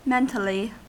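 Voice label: "mentally", American English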